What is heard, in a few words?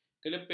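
"kelepir", Malay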